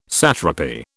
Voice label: "satrapy", British

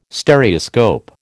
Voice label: "Stereoscope", American

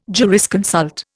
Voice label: "jurisconsult", Indian

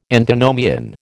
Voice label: "Antinomian", American

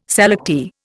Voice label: "selectee", Indian

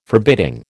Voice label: "forbidding", American

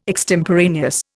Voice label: "extemporaneous", Indian

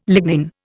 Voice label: "lignin", Indian

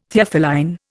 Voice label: "Theophylline", Indian

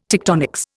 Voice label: "tectonics", Indian

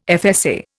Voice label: "FSA", Indian

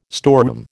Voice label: "storeroom", American

